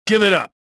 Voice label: anger; rebel

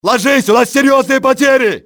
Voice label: man down, russian soldier; enemy; XBOX

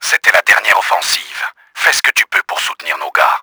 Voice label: FINAL PUSH, french; Battle Engine Aquila; XBOX

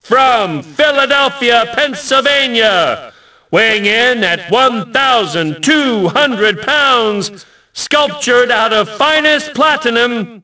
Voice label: announce, ENGLISH; Rocky; XBOX

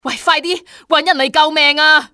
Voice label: get help, civ fmale; XBOX

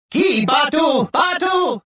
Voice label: cover, rodian

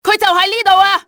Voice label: right here, cantonese; XBOX; enemy

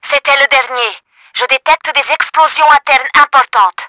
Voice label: engine down, french; Battle Engine Aquila

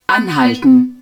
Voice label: HUD PULL UP, german

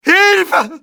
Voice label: help, male; XBOX

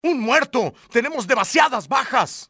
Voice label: man down, spanish soldier; enemy; XBOX

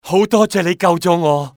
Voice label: thank, male; XBOX; civ

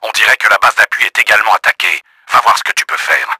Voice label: UNDER ATTACK, french; Battle Engine Aquila; XBOX

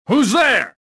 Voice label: suspicious; rebel

